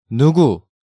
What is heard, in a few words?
"Nugu", Hangeul sounds; DigitalSoju